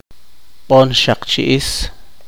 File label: poqomchi; pintalabios